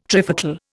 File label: "trifocal", Indian